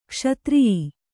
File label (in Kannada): "ಕ್ಷತ್ರಿಯಿ", Kannada